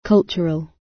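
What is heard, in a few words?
"cultural", British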